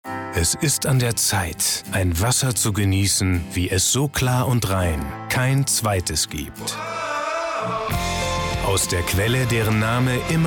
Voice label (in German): Quellwasser Werbung; LOFT VOICES